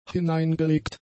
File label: "hineingelegt", German; adjectives